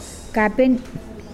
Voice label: buttocks, Mokilese